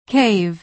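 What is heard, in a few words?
"cave", American English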